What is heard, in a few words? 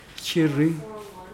baby, Mokilese